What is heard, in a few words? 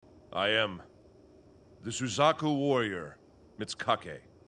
english; fushigiyuugi; mitsukake